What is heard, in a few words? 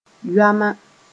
"yoama", Mooré